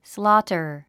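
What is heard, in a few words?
"slaughter", English word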